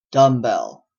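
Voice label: "dumbbell", Canadian English; pronunciation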